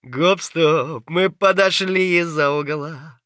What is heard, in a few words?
scav mutter, voice; Escape from Tarkov; scav3